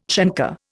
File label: "chancre", Indian